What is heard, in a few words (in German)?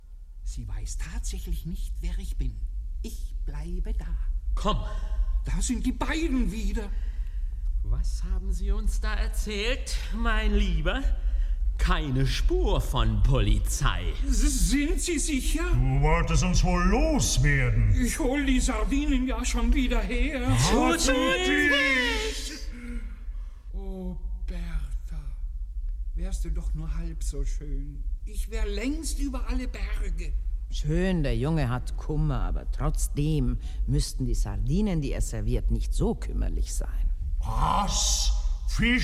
Sprecherin & Dialog; Trombalcazar, Ou Les Criminels Dramatiques; C.D.Dupeuty And E.Bourget; 1856